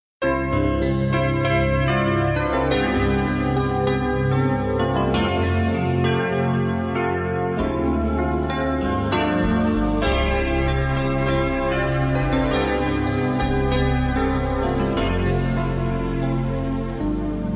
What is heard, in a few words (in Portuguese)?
telemensagem romantico; ROMANTICO ESPOSO F; romantica para esposo